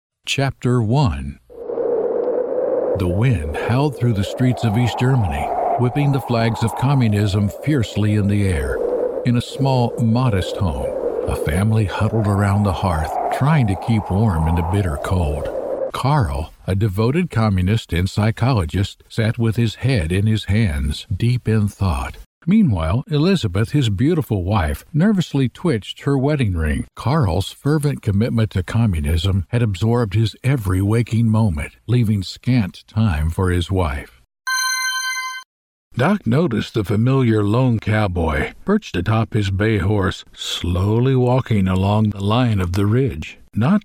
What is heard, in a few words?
Audiobooks Fiction